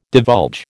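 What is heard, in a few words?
"divulge", American English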